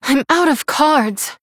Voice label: Female Human No Cards; Jaina